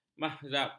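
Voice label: "mazhab", Malay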